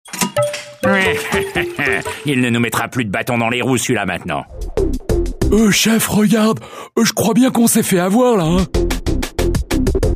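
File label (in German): French, Charge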